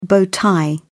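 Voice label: "bow tie", British English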